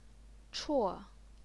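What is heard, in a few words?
"chuo", fourth tone, Chinese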